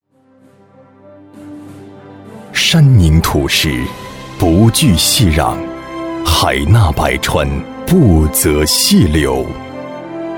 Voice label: 男 企业介绍专题 大气恢弘; 集团企业宣传类型

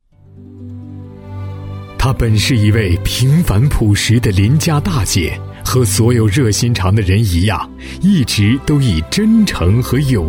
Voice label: 男 人物宣传 抒情; 浑厚大气; 舒缓抒情

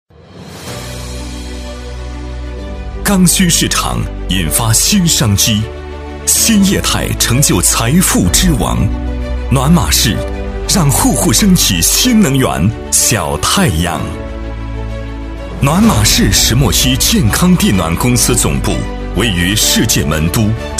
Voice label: 男 企业宣传 大气激情; 厚重磁性; 大气浑厚

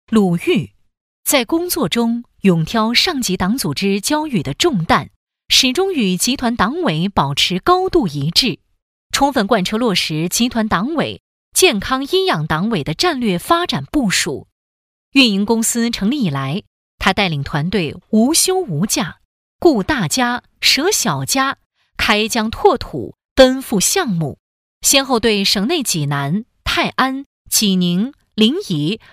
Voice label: 女 人物颁奖 厚重有力; 年会颁奖配音

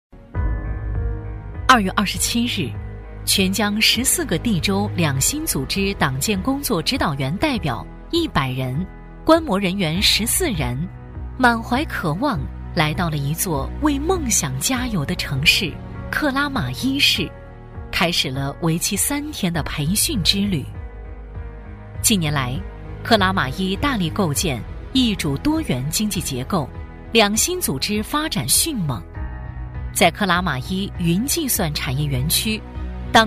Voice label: 女 党建专题 培训 大气稳重; 克拉玛依培训; 质感知性; 沉稳大气

